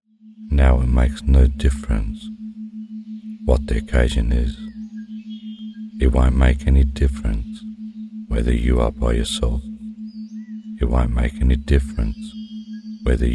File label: stuttering